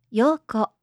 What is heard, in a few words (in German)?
"yoko", japanische